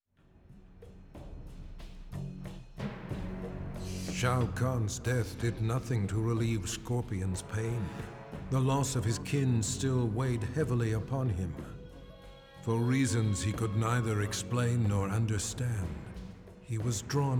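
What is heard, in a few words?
music voice-over endings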